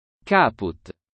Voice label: Head latin